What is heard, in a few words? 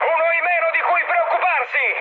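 Italian player killed enemy mood high, speech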